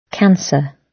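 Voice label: "cancer", British English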